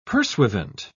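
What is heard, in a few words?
"pursuivant", British English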